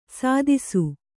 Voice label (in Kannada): "ಸದಸ್ಸು", Kannada